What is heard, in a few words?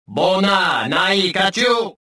suspicious, rodian